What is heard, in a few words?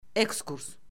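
"ekskurs", Azerbaijani; Orfoqrafiya Lüğəti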